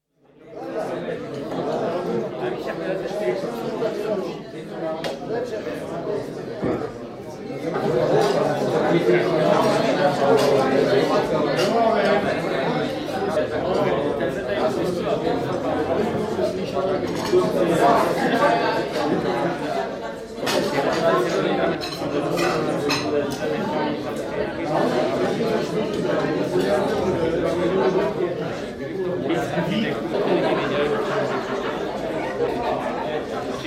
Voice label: Restaurant, Prague, fairly busy, with distinct speech (Czech) and clinks; BBC